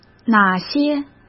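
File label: "哪些", Mandarin Chinese; chinese flashcards; hsk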